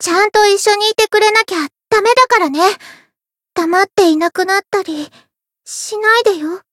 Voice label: Voice story, jp